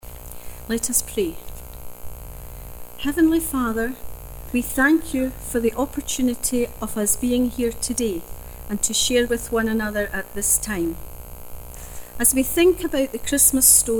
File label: close prayer; Christmas eve